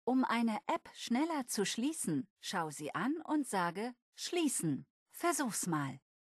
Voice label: close, de-de; Windows; HoloShell